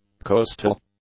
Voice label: "coastal", English; adjectives